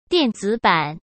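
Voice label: "电子版", Mandarin Chinese; chinese flashcards; hsk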